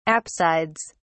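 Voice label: "apsides", British English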